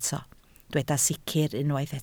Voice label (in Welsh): "sicr", Welsh